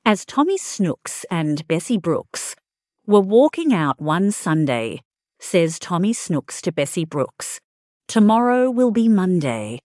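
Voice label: Tommy Snooks and Bessie Brooks, female Australian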